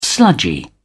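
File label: "sludgy", English, British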